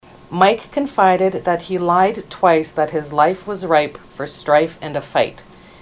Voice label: mike lied twice, ontario

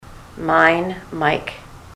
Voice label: mine, ontario

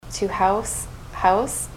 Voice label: to house house, ontario; mouth set

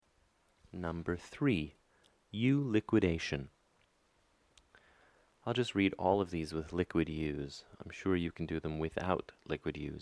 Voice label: rp, phonetics; speech

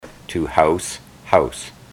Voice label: to house house, ontario

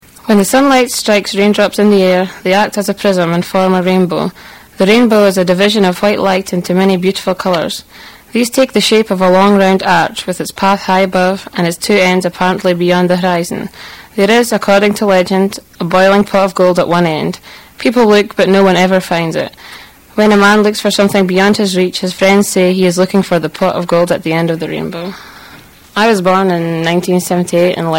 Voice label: scotland, dialects

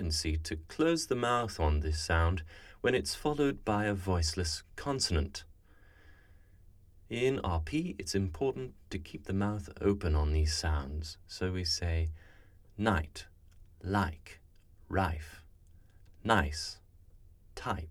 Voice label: Price, rp dialects speech

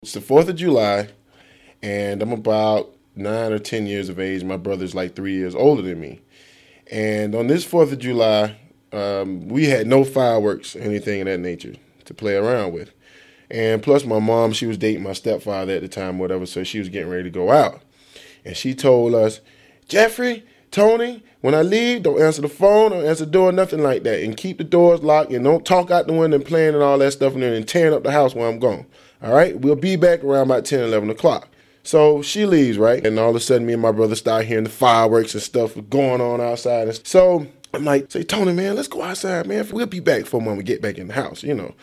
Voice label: dialects, southern; speech

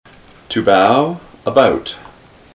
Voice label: to bow about, ontario

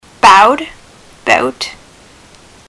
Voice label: bowed bout, ontario; mouth set

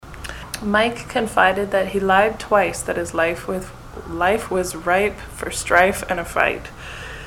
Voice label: mike lied twice, ontario

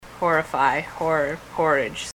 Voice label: horrify horror

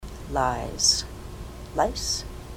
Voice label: lies lice, ontario

